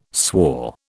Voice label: "swore", British

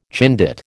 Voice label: "Chindit", American

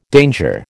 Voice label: "danger", American

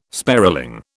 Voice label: "sparling", British

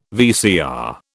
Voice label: "VCR", British

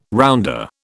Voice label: "rounder", British

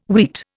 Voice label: "wheat", Indian

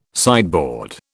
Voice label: "sideboard", British

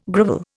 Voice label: "grovel", Indian

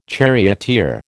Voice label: "charioteer", American

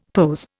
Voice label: "POS", Indian; Dictionary

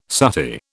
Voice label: "suttee", British

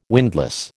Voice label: "windlass", American

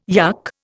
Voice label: "yuck", Indian

